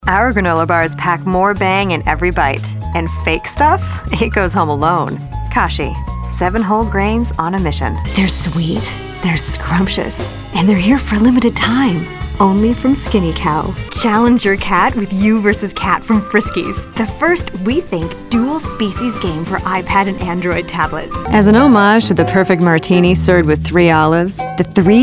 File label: commercial